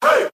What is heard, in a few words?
"hey" group of men